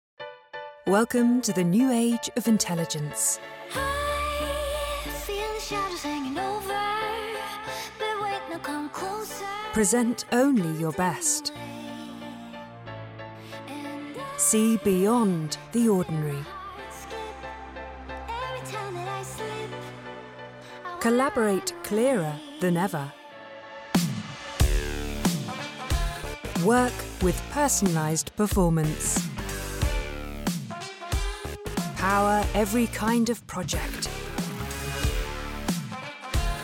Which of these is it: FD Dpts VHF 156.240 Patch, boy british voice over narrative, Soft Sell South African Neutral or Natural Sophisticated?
Natural Sophisticated